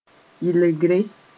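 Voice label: "yɩlgre", Mossi; cleansing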